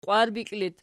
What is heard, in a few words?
"qwarbikli", Archi